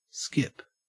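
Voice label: "skip" English, Australian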